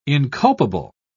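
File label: "inculpable", English, British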